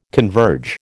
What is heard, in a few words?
"converge", American